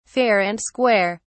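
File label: "fair and square", British English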